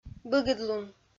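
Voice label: "быгыдлун", Komi, female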